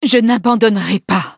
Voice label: levelup, fr; Cookie Run